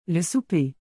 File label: "le souper", Québécois French